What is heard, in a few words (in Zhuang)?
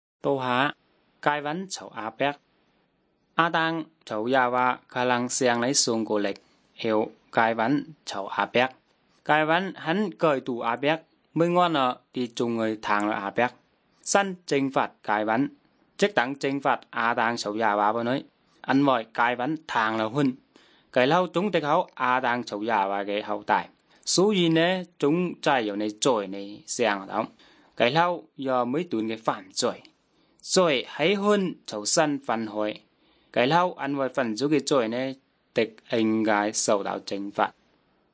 good news zhuang nanning fushu